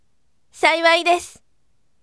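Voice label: voice "I would be glad" Japanese, bright; saiwai desu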